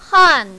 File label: "han4", mandarin, female